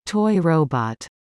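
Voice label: "toy robot", English words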